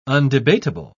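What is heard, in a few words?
"undebatable", British English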